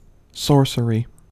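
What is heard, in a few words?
"sorcery", US English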